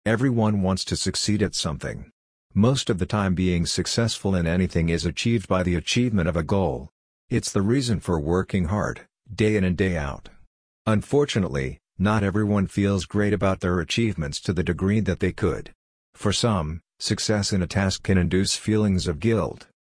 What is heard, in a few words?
Male; Feeling Guilty